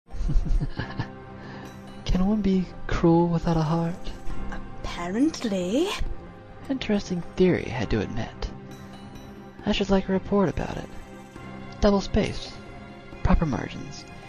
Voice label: Commercial